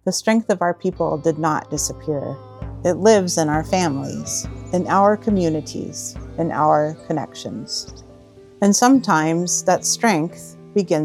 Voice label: RADIO SPOTS